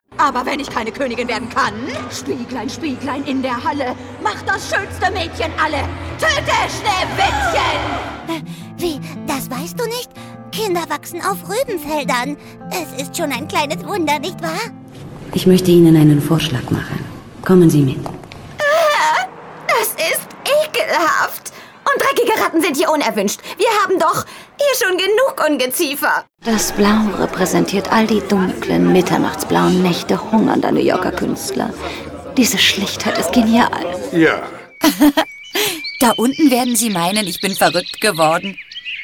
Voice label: female german; ideasonora